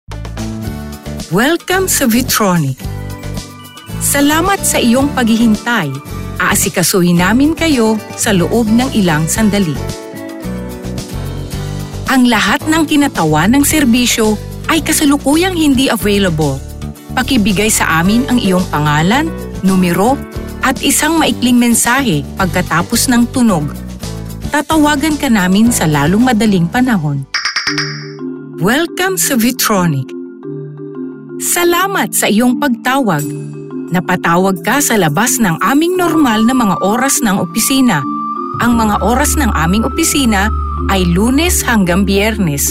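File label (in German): telefonansagen sprecher Philippines; Native Speaker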